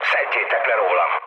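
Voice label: Hungarian aircraft damaged mood low, speech; War Thunder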